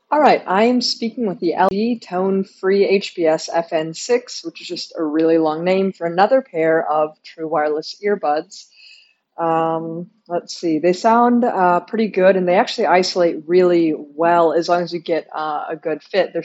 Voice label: LG TONE Free HBS FN6 microphone